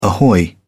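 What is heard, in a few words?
"ahoy", British English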